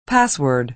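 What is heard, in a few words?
"password", American English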